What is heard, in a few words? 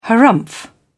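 "harrumph", British English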